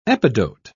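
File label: "epidote", British English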